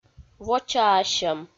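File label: "вочаасьӧм", Komi, female; audio dict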